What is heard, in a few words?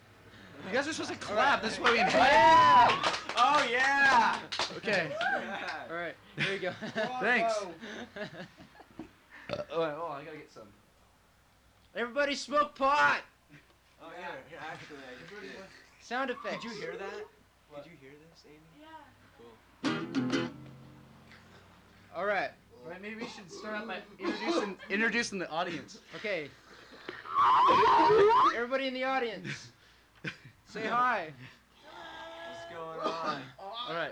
Bong Banter; Background Actors